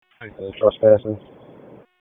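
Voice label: Police Northeast District Dispatch, p25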